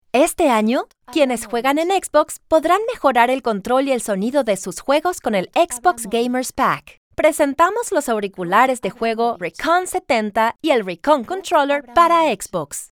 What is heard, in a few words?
Gaming Product Video